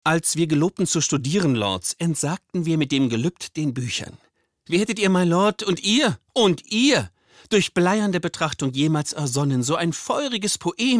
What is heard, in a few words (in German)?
synchron, Lip-Sync